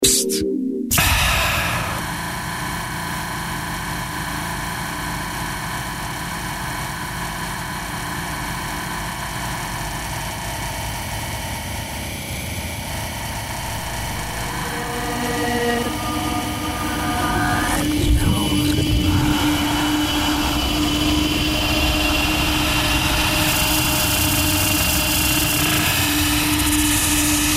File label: commercials